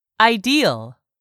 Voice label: "IDEAL", english; 3000 words

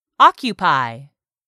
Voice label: "occupy", English; 3000 words

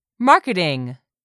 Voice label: "marketing", English; 3000 words

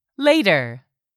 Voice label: "later", English; 3000 words

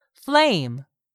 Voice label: "FLAME", English; 3000 words

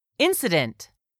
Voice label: "incident", English; 3000 words

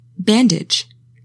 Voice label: "bandage", English; 3000 words

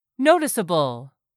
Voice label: "noticeable", English; 3000 words

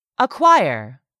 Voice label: "acquire", English; 3000 words

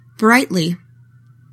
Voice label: "BRIGHTLY", english; 3000 words

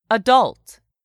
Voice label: "adult", English; 3000 words

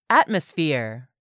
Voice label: "ATMOSPHERE", English; 3000 words

